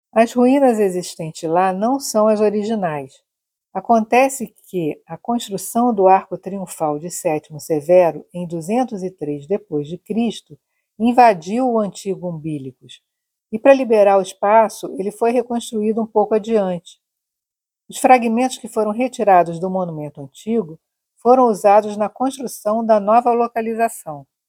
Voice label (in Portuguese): Audioguia; Umbilicus Urbis; Ruinas